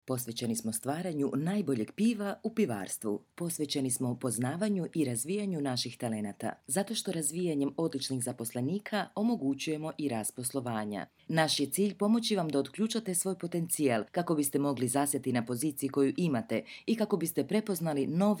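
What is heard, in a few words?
HR Corporate, Croatian; GoLocalise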